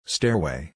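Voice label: "stairway", English pronunciation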